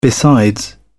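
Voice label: "besides", British English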